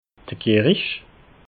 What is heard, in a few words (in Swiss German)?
"kirich", alsace